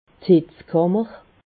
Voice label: "hitzkammer", alsace